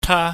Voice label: "ta", Chinese

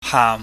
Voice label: "haam", chinese

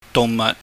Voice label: "duhngmaht", Cantonese

